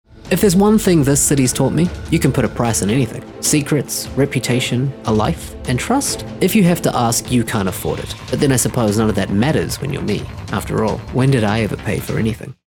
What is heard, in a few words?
Thief, en-AU